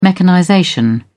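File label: "mechanization", English, British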